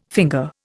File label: "finger", Indian